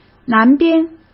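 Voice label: "南边", Mandarin Chinese; hsk; chinese-flashcards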